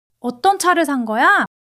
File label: excitement